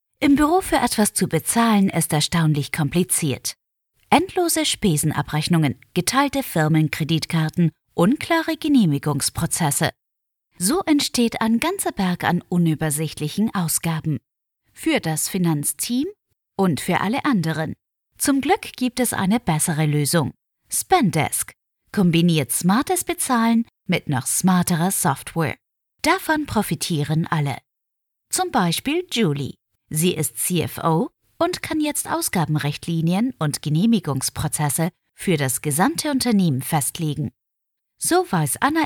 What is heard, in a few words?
german corporate videos